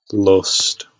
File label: "lust", Northern English